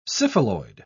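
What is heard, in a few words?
"syphiloid", British English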